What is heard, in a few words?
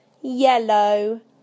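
"yellow", British English; PictureDictionary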